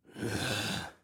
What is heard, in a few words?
Global Hi, Male